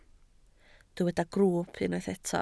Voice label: "grwwp" Welsh

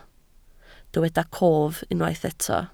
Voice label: "cof", Welsh

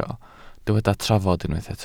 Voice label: "trafod" Welsh

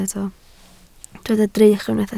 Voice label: "drych", Welsh

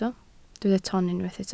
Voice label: "ton" Welsh

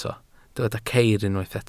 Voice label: "ceir", Welsh

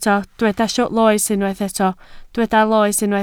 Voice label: "loes", Welsh

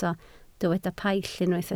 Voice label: "paill" Welsh

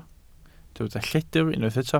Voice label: "lledr", Welsh

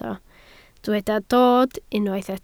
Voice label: "dod", Welsh

